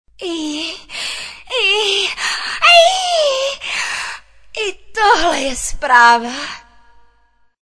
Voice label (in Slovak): sms hlásky cz